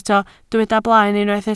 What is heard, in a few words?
"blaen", Welsh